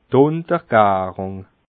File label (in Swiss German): "untergarung", alsace